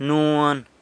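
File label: "nuan", San Lucas Quiaviní Zapotec; chirimoya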